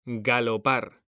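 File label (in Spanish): "galopar", Spanish, male; mekanta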